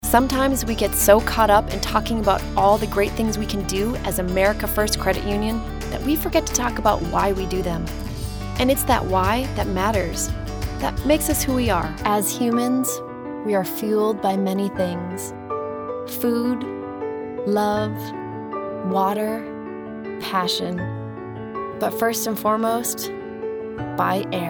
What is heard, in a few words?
Narrative